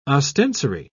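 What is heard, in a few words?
"ostensory", British English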